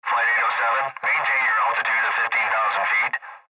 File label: Airtraffic Control Maintain